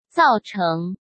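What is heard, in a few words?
"造成", Mandarin Chinese; chinese-flashcards; hsk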